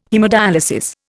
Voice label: "haemodyalisis", Indian

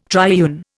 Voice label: "triune", Indian

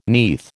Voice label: "neath", American

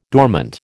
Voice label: "dormant", American

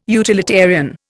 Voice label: "utilitarian", Indian

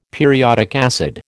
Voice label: "periodic acid", American

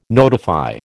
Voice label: "notify", American

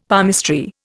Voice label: "palmistry", Indian; Dictionary

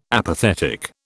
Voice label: "apathetic", British

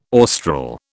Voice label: "austral", British

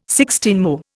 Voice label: "sixteenmo", Indian

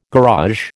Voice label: "garage", American

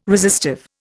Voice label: "resistive", Indian

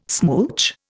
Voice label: "smooch", Indian

